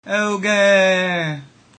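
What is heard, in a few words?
congratulations, ancient Greek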